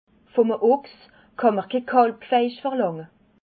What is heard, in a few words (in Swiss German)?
"ochs", Alsace